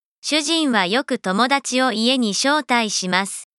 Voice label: Shujin husband Japanese example sentence